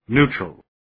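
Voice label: "neutral", English word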